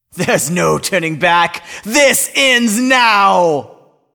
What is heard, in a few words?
cinematic voice; lineage2